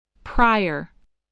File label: "prior", voice, spelling